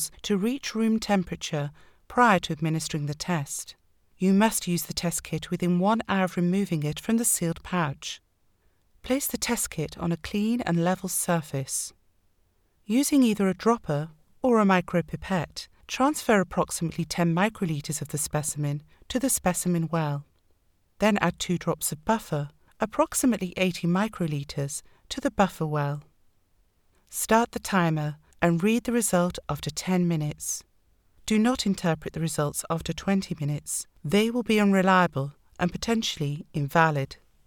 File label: Covid Public Announcement